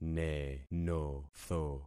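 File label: "nenotho", concatenated syllables; Affixing experiment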